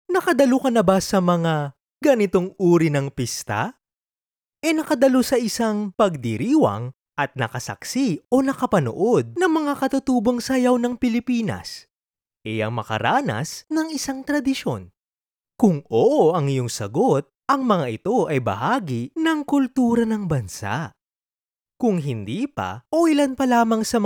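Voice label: Tagalog, male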